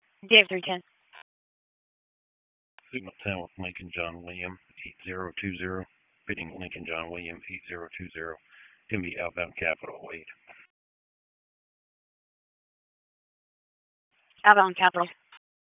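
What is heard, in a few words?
Raleigh Police Southeast District Dispatch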